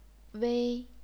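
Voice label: "wei", chinese pinyin, first tone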